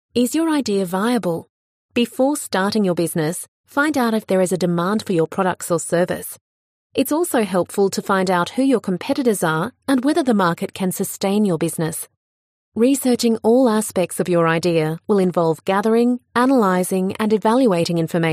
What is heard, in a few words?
AF CORPORATE